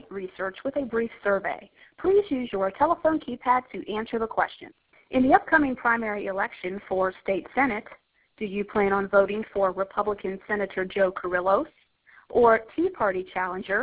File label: voicemail, robo calls; The Joint Legislative Committee on Ethical Standards